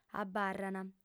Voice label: "abarrant", audiofem